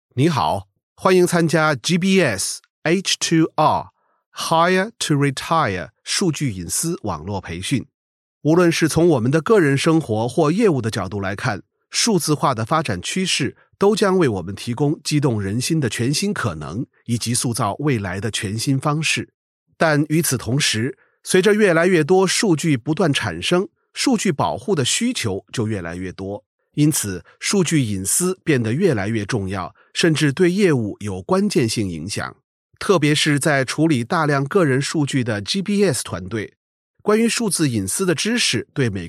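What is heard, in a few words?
ZH E-learning, Chinese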